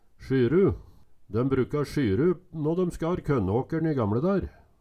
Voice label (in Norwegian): "sjyru", Numedalsmål